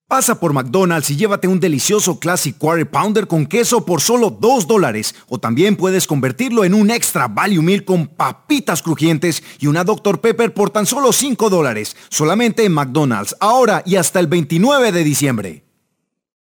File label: male Spanish